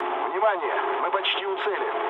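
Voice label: near waypoint, mood low, Russian; War Thunder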